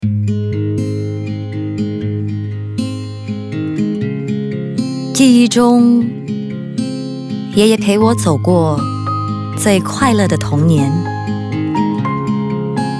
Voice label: Mandarin, China Female